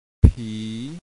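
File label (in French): "tchéé", ton montant, thailandaise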